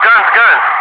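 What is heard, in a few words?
"guns", English speech, Wingman; DCS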